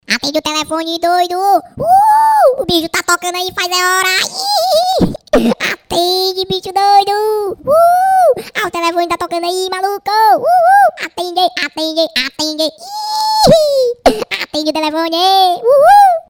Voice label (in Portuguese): atende o telefone doido